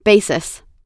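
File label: "basis", US English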